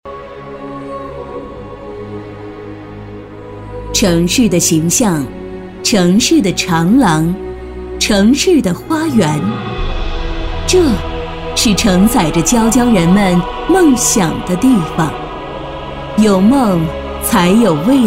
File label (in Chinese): 女 专题 城市宣传片; 椒江画卷